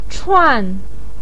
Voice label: "chuan4", pinyin; 串; to string together; to skewer; bunch